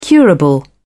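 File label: "curable", British English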